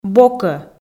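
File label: "бокӧ", Komi, female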